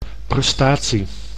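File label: "prestatie", Dutch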